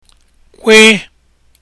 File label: kw letter, igbo; Kwọta; Carry